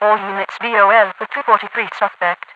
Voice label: all units bol for 243 suspect, radio voice; hl2; npc; overwatch